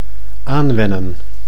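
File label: "aanwennen", Dutch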